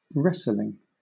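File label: "wrestling", British English; pronunciations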